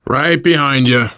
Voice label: im with you, voice, biker; Left 4 Dead 2; left4dead2 dlc3; survivor

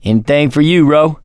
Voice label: you are welcome, voice, mechanic; survivor; producer

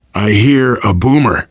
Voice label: heard boomer, survivor voice, biker; Left 4 Dead 2

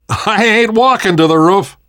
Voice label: world hospital, namvet, survivor voice

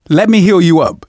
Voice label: heal other, manager, survivor, voice; Left 4 Dead 2; left4dead2 dlc2

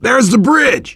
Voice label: directional, voice, biker

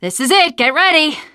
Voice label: teengirl, voice; Left 4 Dead 2; left4dead2 dlc2; survivor

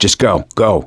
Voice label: emphatic go quiet, survivor voice; gambler

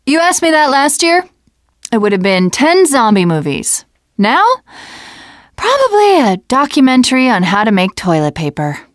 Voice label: second saferoom, teengirl, voice

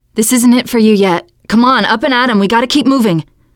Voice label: revive friend, teen girl; player; survivor; voice

